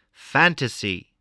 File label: "fantasy", voice